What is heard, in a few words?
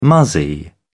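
"muzzy", British English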